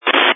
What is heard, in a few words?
Family Radio Service (FRS)